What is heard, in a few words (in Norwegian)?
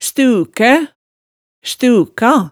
"stukæ", hemsedalsmaalet